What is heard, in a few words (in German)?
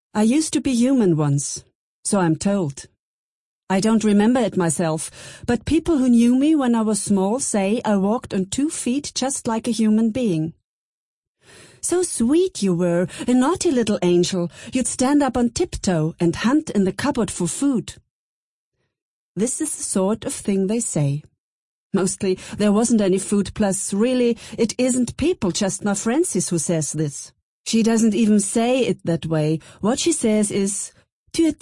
Englisch Franzoesisch